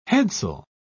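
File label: "headsail", British English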